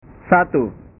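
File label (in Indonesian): "satu", Indonesian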